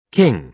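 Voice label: "king", British English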